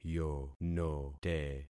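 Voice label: "yonote", concatenated syllables; Affixing experiment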